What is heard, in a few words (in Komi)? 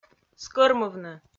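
"скӧрмывны", Komi-Zyrian, female; kpv audio dict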